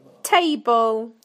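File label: "table", British English; PictureDictionary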